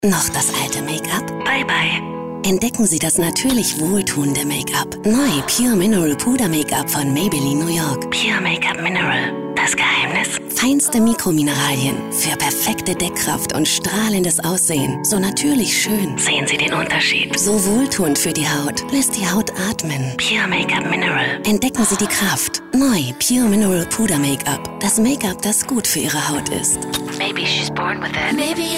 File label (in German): W Fremdtake, Sanft